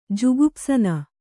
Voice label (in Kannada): "ಜುಗುಪ್ಸನ", Kannada